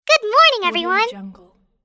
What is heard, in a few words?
cartoon girl good morning everyone